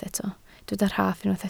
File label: "rhaff", Welsh